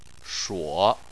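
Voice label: "shuo", Chinese, third tone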